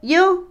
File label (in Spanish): Otomí, mujer; caminar; Movimiento; INALI